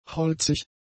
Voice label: "holzig", German; adjectives